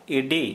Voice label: Santali heel